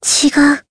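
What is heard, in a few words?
voice, Japanese; Jane; Deny; kingsraid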